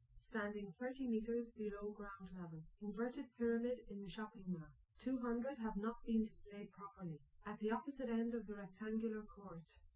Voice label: female liverec; SiSEC08; SiSEC underdetermined